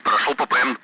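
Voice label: Speech, Russian; Allied Flight; passing waypoint; DCS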